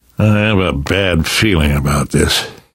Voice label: reaction apprehensive, survivor voice; source engine; left 4 dead 2; namvet